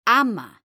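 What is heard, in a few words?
"ama", Spanish, female; mekanta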